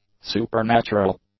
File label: "supernatural", English; adjectives